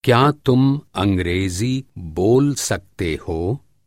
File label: do you speak english, hindi